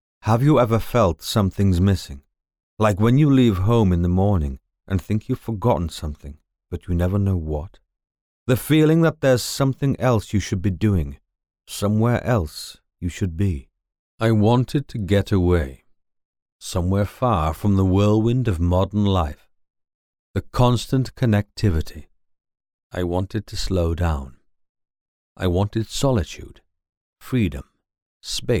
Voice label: Narration